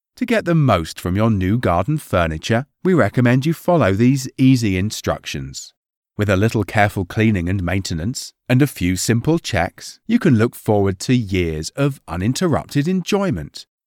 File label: garden furniture senior